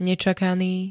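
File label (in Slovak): "nečakaný", Slovak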